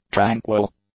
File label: "tranquil", English; adjectives